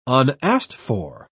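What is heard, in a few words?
"unasked-for", British English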